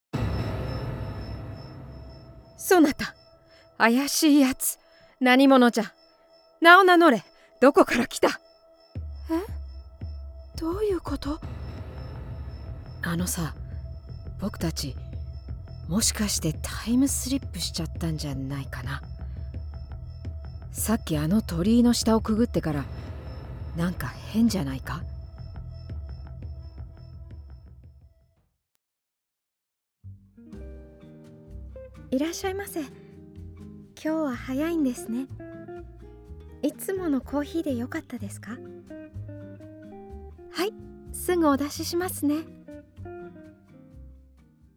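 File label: Japanese, female, character samples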